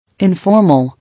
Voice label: "Informal", English